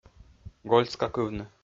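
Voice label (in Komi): "гольскакывны", Komi-Zyrian, male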